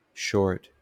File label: short pronunciation speech english